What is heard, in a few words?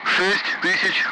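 Speech, Russian, Numbers, 60; Allied Flight; DCS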